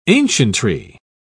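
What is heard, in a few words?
"ancientry", English, British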